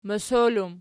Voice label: "mosol", Archi